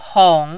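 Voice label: "hong", third tone, Mandarin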